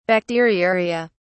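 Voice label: "bacteriuria", British English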